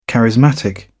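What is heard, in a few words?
"charismatic", British English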